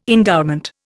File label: "endowment", Indian